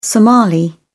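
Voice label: "somali", English, British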